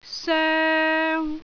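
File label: "soeng3", Cantonese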